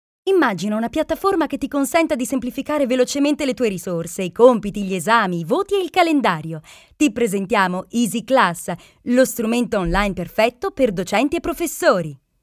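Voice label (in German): IT Werbung Dynamisch, Italian, advert, dynamic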